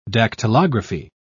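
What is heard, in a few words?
"dactylography", British English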